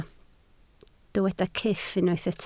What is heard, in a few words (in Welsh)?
"cyff", Welsh